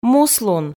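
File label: "муслун", Komi, female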